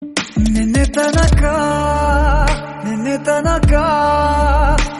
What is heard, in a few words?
kannada notification